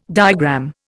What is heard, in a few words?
"diagram", Indian